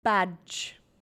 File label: "badge", English